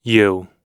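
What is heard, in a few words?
"you", English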